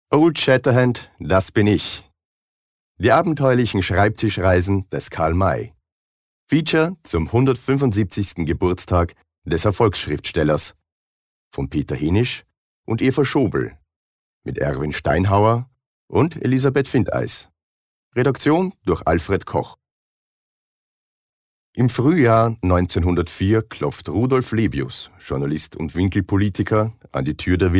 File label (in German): ANMODERATION; Karl May